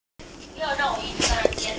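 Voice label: "ear", Mandarin, hearing-impaired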